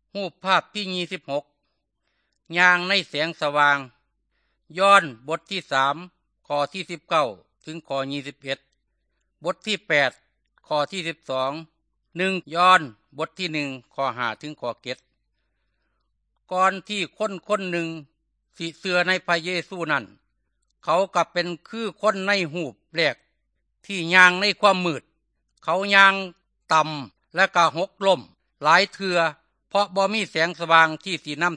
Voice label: good news thai northeastern

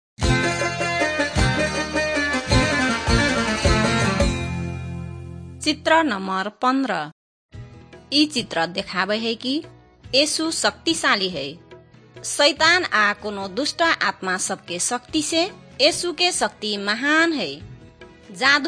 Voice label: maithili thetiya

